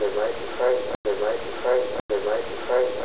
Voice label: right behind you, EVP